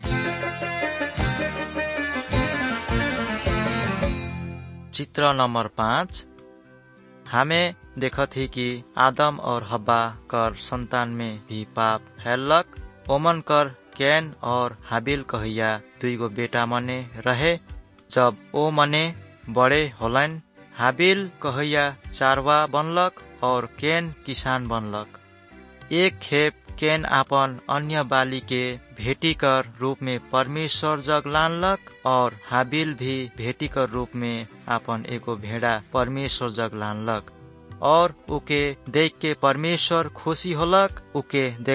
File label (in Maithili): good news maithili, nt drama